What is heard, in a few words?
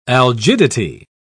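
"algidity", British English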